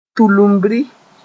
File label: "tulubri", moore